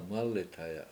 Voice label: "mAlithaya", washo; to trap